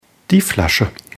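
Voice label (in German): "Flasche", German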